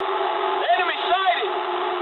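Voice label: English enemy detected mood low; War Thunder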